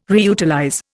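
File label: "reutilize", Indian English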